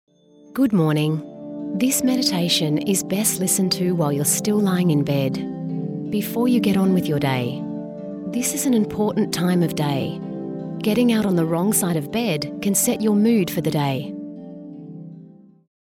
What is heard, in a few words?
MEDITATION